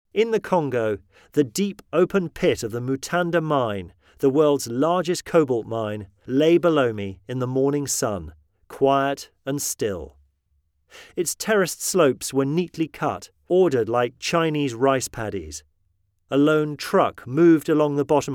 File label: male british voiceover audiobooks